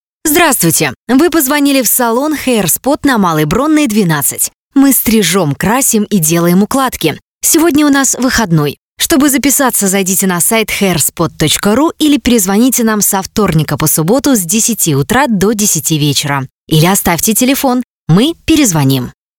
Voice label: IVR